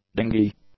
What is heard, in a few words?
"dingey", English